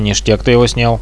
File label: nice shoot, radio bot; Reallite